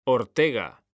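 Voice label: "Ortega", Spanish, male; mekanta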